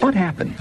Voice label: "what happened", announcer; questions